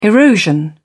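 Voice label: "erosion", British English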